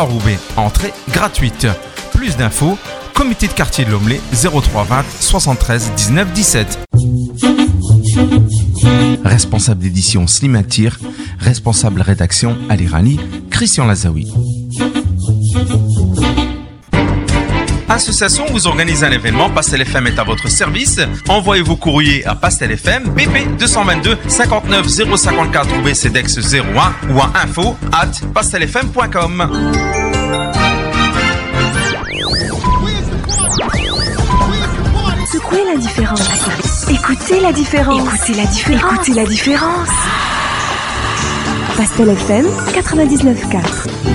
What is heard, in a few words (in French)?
JINGLE 2 LANGUES 2 VOIX